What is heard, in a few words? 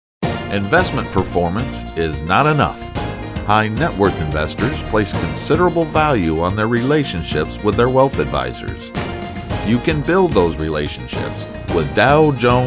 Commercial, Voice Over